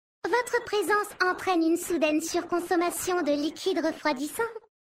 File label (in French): VF Mecagnome Femme Flirt; Liquide